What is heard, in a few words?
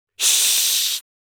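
jeers, Spanish; Demoman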